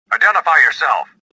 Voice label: suspicious; XBOX

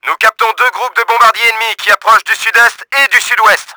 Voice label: ENEMY BOMBERS APPROACHING, french; Battle Engine Aquila; XBOX

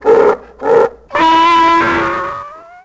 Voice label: tusken cry, creatures; Star Wars Obi-Wan; XBOX

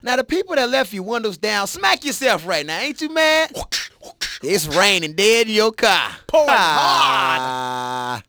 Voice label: Banter Weather Rain; Project Gotham Racing 2; radio; Power 92 Chicago

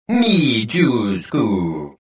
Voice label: detected, rodian

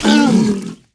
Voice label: shocked; Star Wars Obi-Wan; XBOX

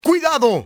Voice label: watch out, spanish soldier; enemy; XBOX

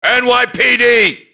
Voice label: police alert; enemy; Max Payne

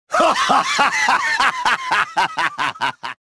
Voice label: gloat; reborn

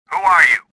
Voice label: suspicious

